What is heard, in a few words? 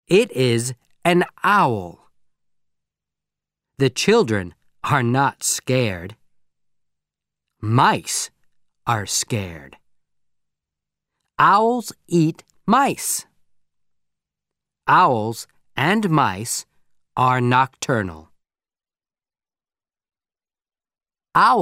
Audio American English